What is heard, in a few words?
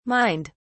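"mined", British English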